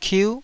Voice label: "kiu9", taiwanese syllables

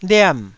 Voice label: "liam4", Taiwanese syllable

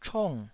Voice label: "chong4", Taiwanese

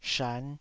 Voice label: "shan5", taiwanese Syllables

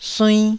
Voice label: "suinn1", taiwanese

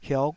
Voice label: "hiok5", Taiwanese syllable

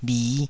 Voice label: "bhi5", taiwanese

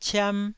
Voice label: "ciam1", taiwanese

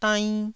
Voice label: "dainn", Taiwanese

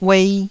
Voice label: "uei2", taiwanese

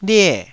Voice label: "lie4", Taiwanese syllable

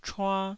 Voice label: "chua1", taiwanese

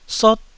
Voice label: "sot1", Taiwanese

